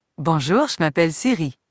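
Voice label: Canadian French; iOS Sounds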